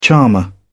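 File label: "charmer", British English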